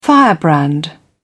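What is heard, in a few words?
"firebrand", British English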